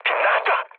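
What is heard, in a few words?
Korean bomb failure mood low; speech; War Thunder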